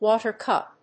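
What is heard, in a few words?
"water cup", English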